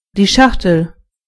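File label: box, German voices words; gcompris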